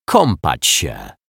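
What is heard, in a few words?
"kapac sie", Polish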